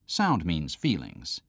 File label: male